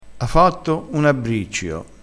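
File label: "abbriccio afatto", Italian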